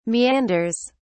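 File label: "meanders", British English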